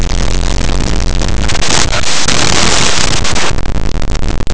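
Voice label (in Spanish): "diccionario", Spanish; Laboratori de fonetica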